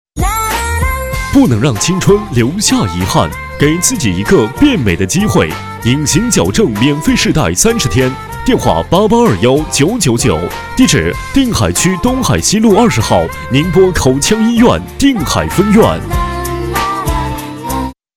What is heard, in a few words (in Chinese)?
广告; 隐形矫正医院